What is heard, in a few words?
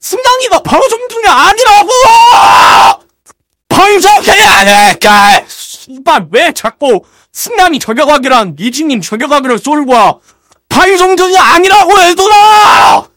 scout negative vocalization